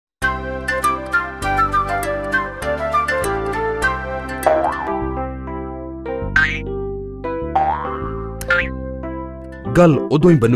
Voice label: punjabi voice over, male voice

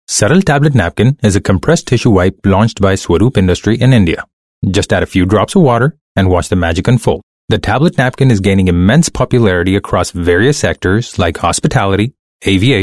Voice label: British accent, male voice

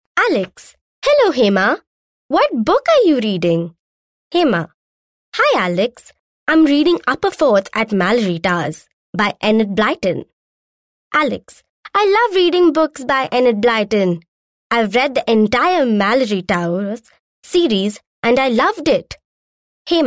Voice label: female voice, Kid english sample

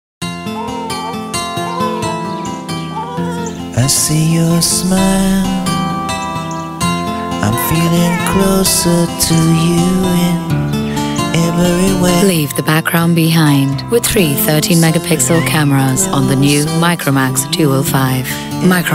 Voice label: female voice English

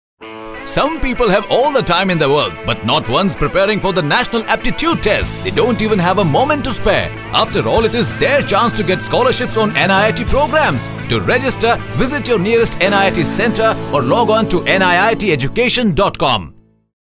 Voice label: English, male voice

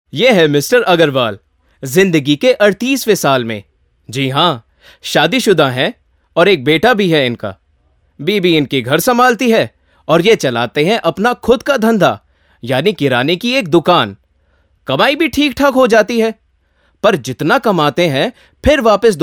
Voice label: hindi, male voice

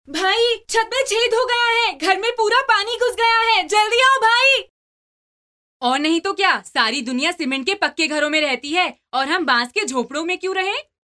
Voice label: radio 13 years, female voice

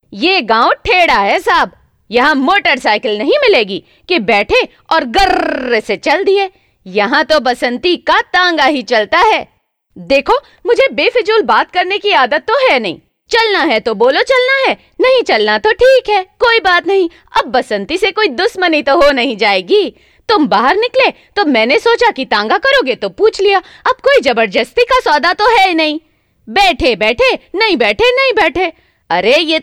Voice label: mimicry, female voice; Hema

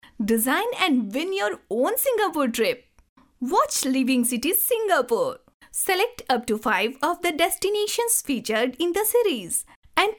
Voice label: audition, female voice

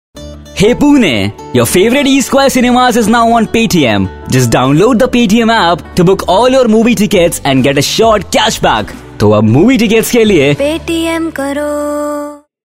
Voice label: English, male voice

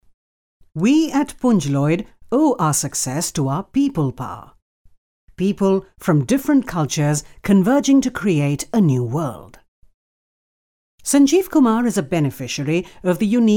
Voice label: eng vo, female voice

